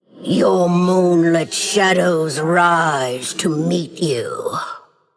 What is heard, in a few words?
Female Undead Play